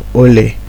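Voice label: "ole", Nigerian Pidgin, male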